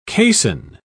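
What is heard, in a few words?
"caisson", English, British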